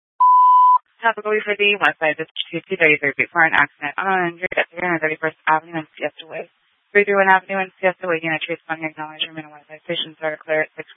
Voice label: Sheriffs Office, scanner